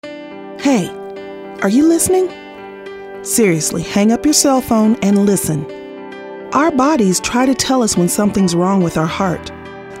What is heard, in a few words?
confessional